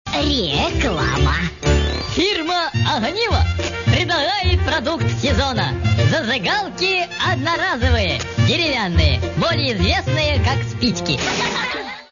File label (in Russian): Reklama